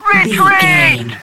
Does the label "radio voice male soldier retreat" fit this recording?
Yes